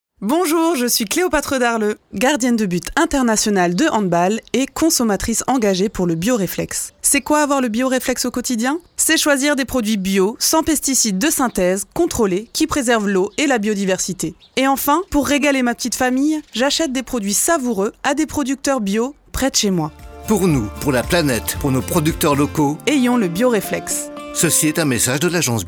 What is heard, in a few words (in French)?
voix off premix radio; AGENCE BIO; CLEOPATRE